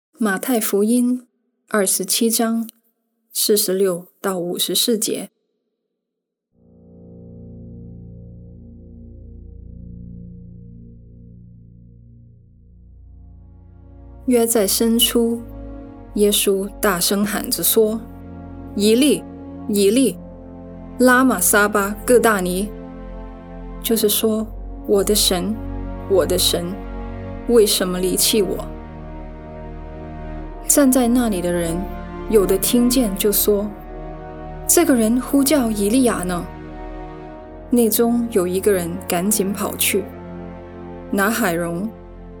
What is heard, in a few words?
Voice Over Chinese; Journey to the Cross